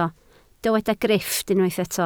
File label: "grifft", Welsh